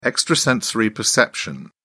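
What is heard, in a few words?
"extrasensory perception", British English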